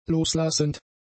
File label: "loslassend", German; adjectives